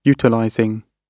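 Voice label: "utilizing", British English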